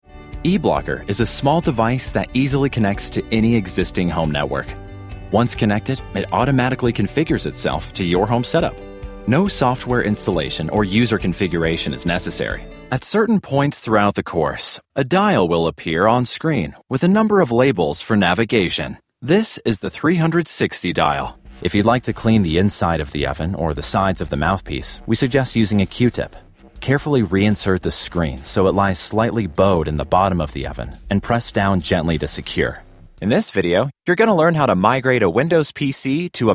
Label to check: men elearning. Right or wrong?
Right